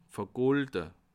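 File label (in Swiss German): "vergolde", Bas Rhin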